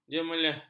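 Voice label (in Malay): "jemeleh", Malay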